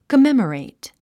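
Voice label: "commemorate", English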